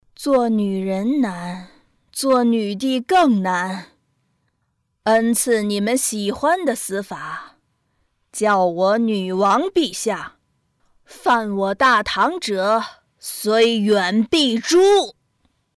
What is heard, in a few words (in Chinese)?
游戏配音; 武则天